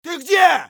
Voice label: scav battlecry; eft